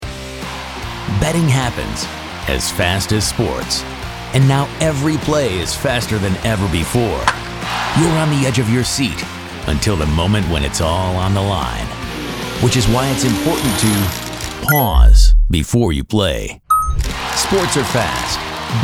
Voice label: Radio 30sec; ORG SPORTS 2022